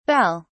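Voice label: "belles", British English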